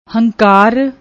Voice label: "hankar", Punjabi; ਹੰਕਾਰ

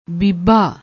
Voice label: "beeba", Punjabi

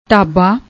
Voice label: "ਢਾਬਾ", Punjabi

